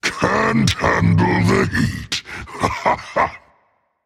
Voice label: taunt rage; chaac